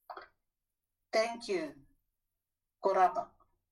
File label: Thank you, Kiribati; Kiribati LW